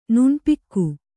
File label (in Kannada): "ನೆನಪುಗ", Kannada